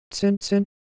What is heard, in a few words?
Japanese Shape, ideophones